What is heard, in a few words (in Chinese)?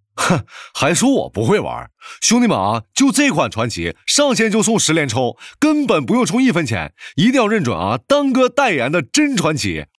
男 网游信息流短视频 东北话